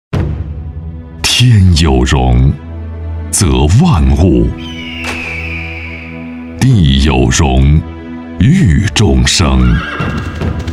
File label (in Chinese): 男 广告 样音 酒坊; 十八酒坊